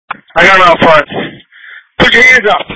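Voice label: Police Patrol Dispatch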